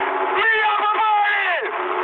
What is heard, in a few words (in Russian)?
aircraft damaged, mood high, speech ru